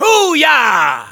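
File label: cheers, German; Soldier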